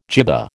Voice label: "jibba", American